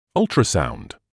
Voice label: "ultrasound", English